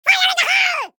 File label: FIRE IN THE HOLE SPED UP